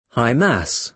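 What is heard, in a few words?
"high mass", British English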